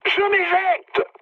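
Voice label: French bailout mood high; War Thunder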